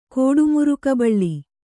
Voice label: "ಕೋಡುಮುರುಕಬಳ್ಳಿ", kannada

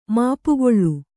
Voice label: "ಮಾಪುಗೊಳ್ಳು", Kannada

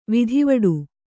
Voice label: "ವಿಧಿವಡು", Kannada

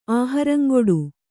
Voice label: "ಆಹರಂಗೊಡು", kannada

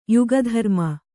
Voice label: "ಯುಗಧರ್ಮ", Kannada

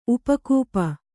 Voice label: "ಉಪಕೂಪ", kannada

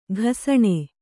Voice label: "ಘಸಣೆ", Kannada

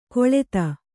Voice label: "ಖಳತಿ", Kannada

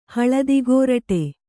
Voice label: "ಹಳದಿಗೋರಟೆ", kannada

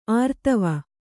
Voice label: "ಆರ್ತವೆ", Kannada

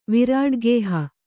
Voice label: "ವಿರಾಡ್ಗೇಹ", Kannada; virāḍgēha

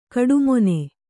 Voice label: "ಕೋಟೆಮನೆ", kannada

